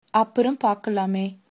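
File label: goodbye, Tamil, female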